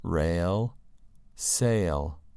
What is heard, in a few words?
"rail" "sail"